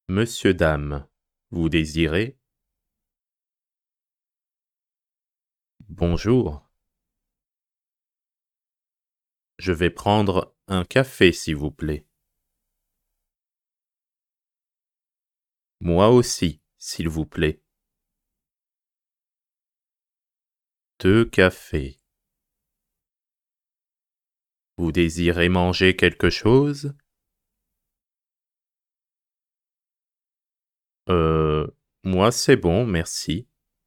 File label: Conversation slow